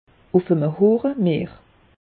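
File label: "hoche", Alsatian; meteo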